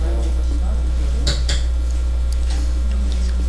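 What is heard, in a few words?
"also", spoken word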